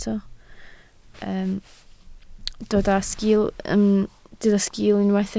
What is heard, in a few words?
"sgiil", Welsh